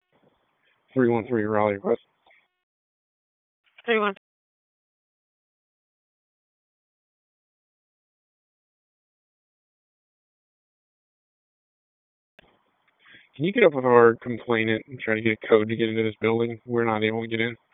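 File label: Raleigh Police Northeast District Dispatch, p25; wake p25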